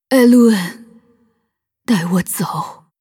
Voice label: death, zh

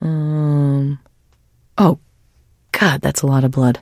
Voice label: trader monolog; 商人杰恩（七日杀）

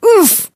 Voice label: hurt vo; belle

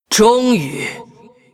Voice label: Hero female demon hunter yes zh

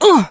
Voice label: hurt

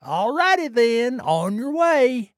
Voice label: trader male neutral sale declined

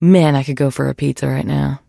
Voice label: monolog; 商人杰恩（七日杀）

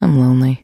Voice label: trader monolog; 七日杀; 商人杰恩

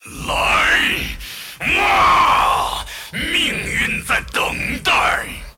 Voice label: attack, zh; Aatrox

